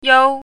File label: "you1", pinyin